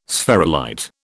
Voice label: "spherulite", British